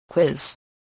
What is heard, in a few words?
"quiz", english